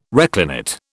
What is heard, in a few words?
"reclinate", British